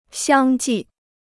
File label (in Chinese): "相继", Chinese